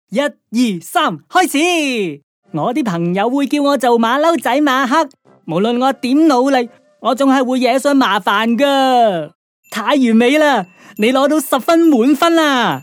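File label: Canto toys, Cantonese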